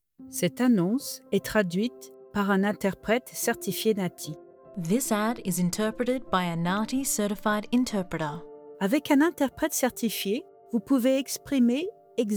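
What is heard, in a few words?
French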